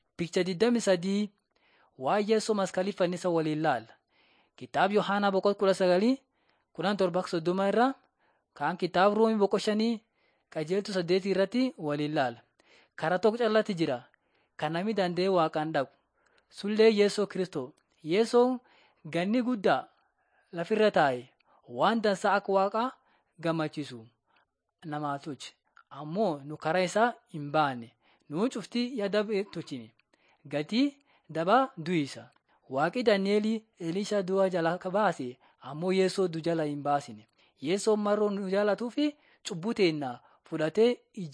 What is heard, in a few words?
borana sakuye